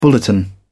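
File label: "bulletin", British English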